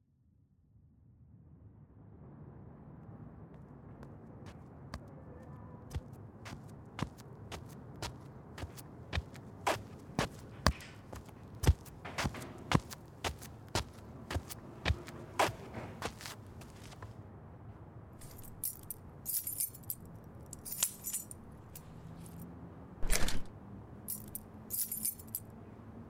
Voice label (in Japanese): 導入～リンパマッサージ, ASMR